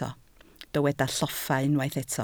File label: "lloffa", Welsh